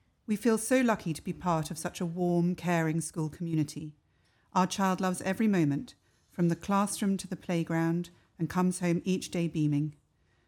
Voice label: Parent Delighted Speech